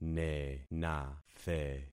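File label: "nenathe", concatenated syllables; Affixing experiment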